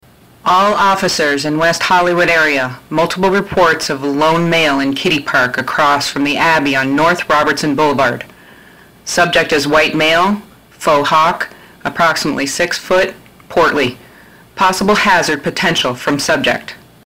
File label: police scanner